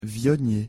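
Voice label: pronunciation french viognier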